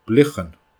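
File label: "bliochan", Scottish Gaelic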